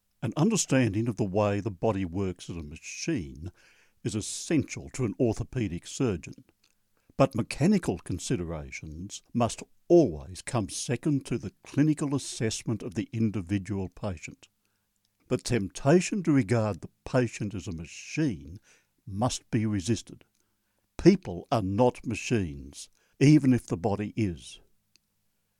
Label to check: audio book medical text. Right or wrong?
Right